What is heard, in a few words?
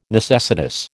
"necessitous", American